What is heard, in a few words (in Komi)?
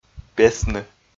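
"песны", Komi, male; audio dict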